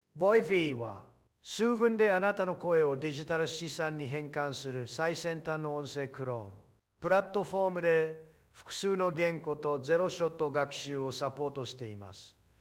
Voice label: voice jp; 懂王日语; Voicv